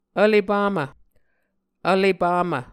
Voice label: "Vlepamv", Muscogee

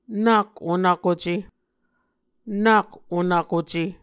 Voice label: "nak onvkuce", Muscogee

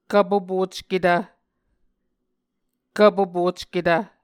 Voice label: "kvpopocketv", Muscogee

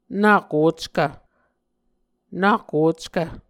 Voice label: "nak ockv", Muscogee

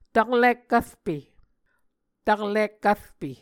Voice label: "taklik-kvrpe", Muscogee

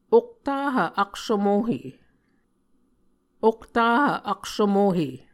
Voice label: "oktahv aksvmohe", Muscogee

